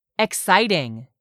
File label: "exciting", English; 3000 words